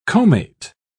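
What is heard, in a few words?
"comate", British English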